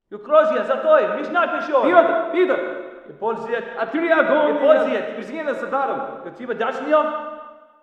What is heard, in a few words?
walla rus mountain conv